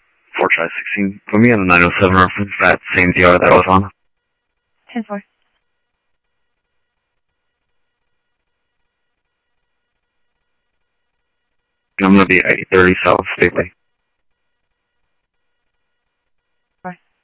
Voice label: Mesa Simulcast, MESA PD, scanner audio; MesaPoliceDep

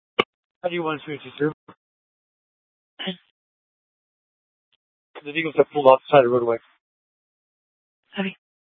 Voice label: Dispatch, scanner

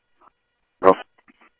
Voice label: Tempe Police, scanner; SimulcastF-Tempe

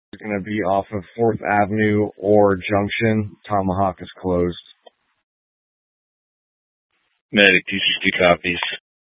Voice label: Fire Departments, scanner

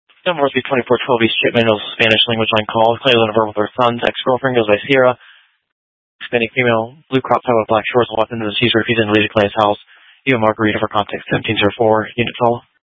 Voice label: Phx PD Dispatch, scanner

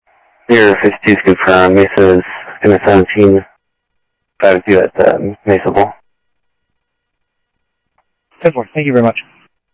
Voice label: South Scottsdale Sheriff, scanner audio

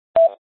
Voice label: FD Dpts VHF 156.240 Patch

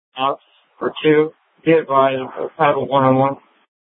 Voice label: Sheriff, scanner

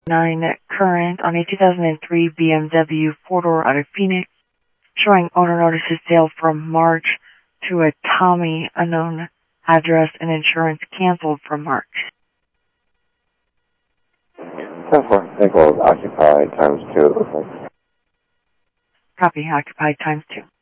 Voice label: Interop Mutual Aid, scanner audio

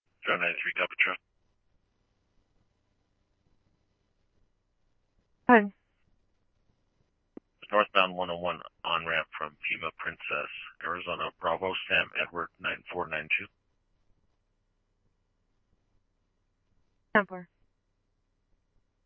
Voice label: scanner, Scottsdale Police

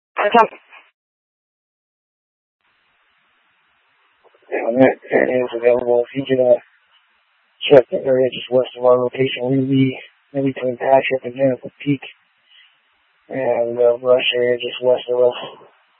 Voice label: Mutual Aid-Intersystem Interop B, scanner

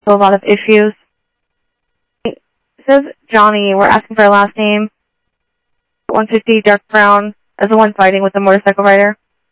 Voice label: South Scottsdale Sheriff, scanner audio; MCSO - EAST Sheriff-EastCnty

